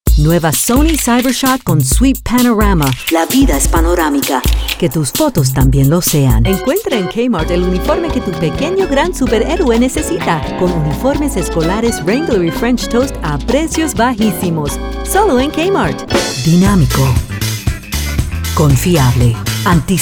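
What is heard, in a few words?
commercial Spanish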